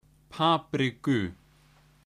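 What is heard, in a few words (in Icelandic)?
"papriku", Icelandic; matarhefti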